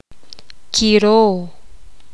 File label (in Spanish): poqomam bueno